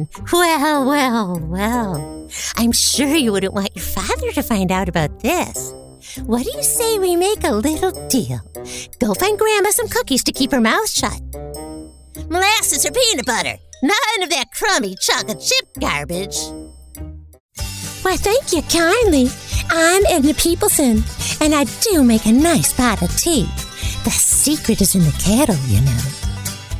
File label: Animation Demo